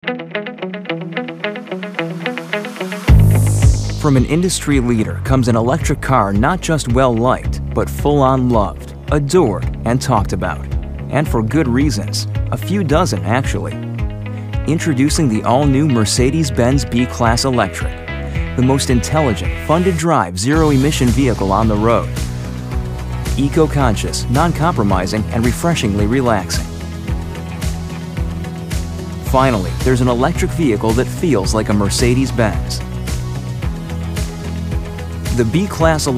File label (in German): Produktvideos Jugendfrei, NATIVE PROFISPRECHER